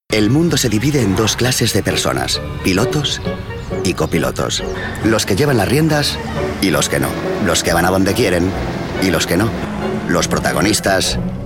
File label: male spanish car comercial; ideasonora